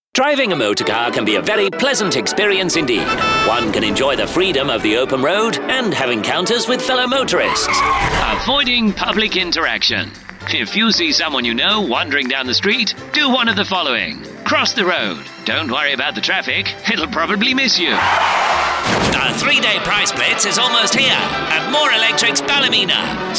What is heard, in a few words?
pathe news voice, 1940s; 1940’s & 1950's retro voiceover style; Pathe News Voice – 1940s Danvers-Walker Style; This is my 1940’s & 1950's retro voiceover style